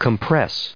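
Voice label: "compress", US English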